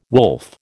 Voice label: "wolf", American